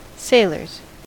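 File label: "sailors", American English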